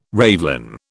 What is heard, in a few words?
"ravelin", British